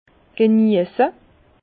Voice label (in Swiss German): "geniesse", Bas Rhin; jouir de; vin